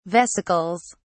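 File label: "vesicles", British English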